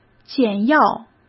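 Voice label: "简要", Mandarin Chinese; chinese-flashcards; hsk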